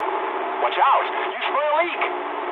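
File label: damaged water mood medium, speech English; War Thunder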